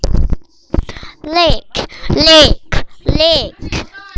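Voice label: "lick", spoken word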